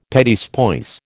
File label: "petits pois", American